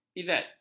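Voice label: "izzat", Malay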